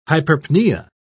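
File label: "hyperpnea", British English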